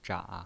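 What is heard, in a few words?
"zha" Mandarin Chinese pinyin voice, third tone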